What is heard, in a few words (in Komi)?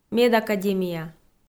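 "медакадемия", Komi, female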